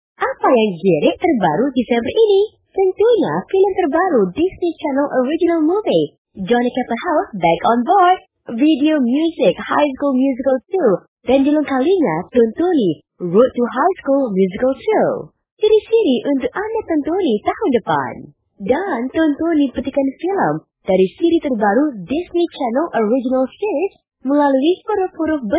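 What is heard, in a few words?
Malay female voice over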